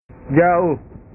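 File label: "jauh", Indonesian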